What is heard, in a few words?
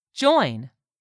"JOIN", english; 3000 words